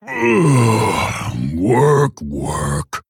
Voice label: Male Orc Play

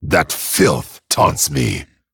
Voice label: Male Dragon ERROR TAUNT

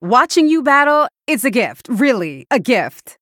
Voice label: Female Human Ahead

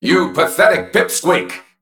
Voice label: Male Mech Attack

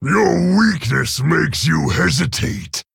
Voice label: Male Orc Idle; Garrosh; Rokara

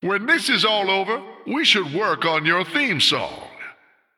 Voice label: Male Human

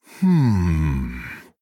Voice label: Male Human Thinking

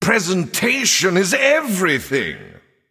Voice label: Male Nightborne

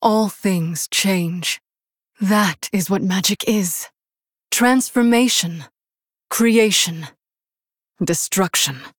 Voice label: Human Female; Hero; Jaina; Pre Mission1

